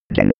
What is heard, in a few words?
robot counting